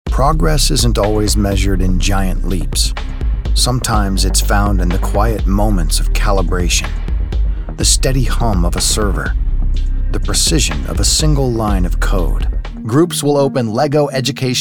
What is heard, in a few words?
Narration, Male Audiobook Narrators